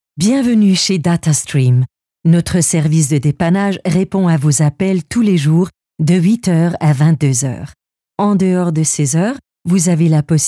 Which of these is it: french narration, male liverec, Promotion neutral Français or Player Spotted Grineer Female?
Promotion neutral Français